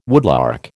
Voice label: "woodlark", American

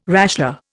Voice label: "rasher", Indian

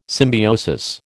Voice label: "symbiosis", American

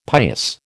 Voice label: "pious", American

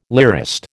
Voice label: "lyrist", American

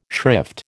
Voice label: "shrift", American

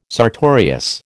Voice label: "sartorius", American

